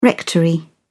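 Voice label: "rectory", British English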